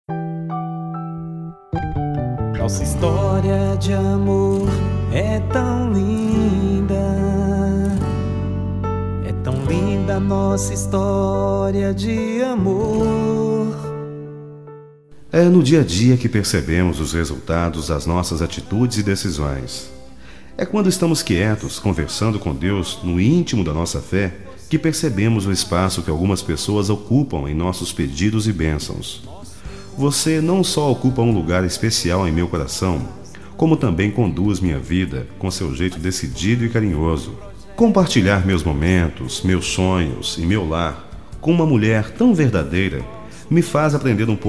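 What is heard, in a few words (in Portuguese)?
birthday CASAMENTO romantic male, Musica Romantica evangelica, telemensagem; aniversario de casamento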